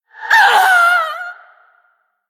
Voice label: Female Night Elf Death

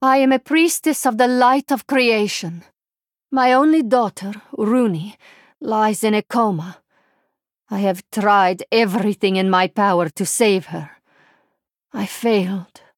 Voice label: Female Draenei; Pre Mission1

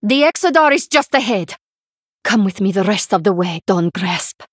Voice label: Female Draenei Victory Post Explosion

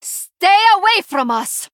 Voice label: Female Draenei

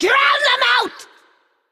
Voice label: Female Naga Attack

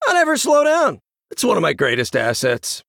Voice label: Male Human Bark

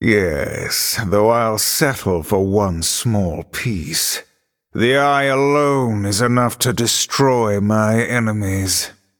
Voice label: Male Night Elf

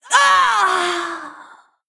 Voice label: Female Old God Creature Death

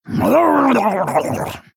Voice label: Female Murloc Play

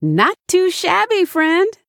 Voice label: Female Human Combat Win

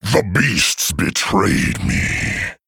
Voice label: Male Worgen Play

